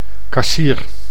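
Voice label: "kassier", Dutch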